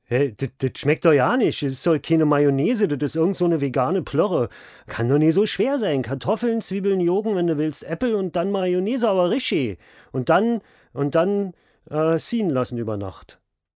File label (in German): Dialekt berlinerisch